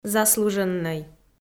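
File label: "заслуженнӧй", Komi, female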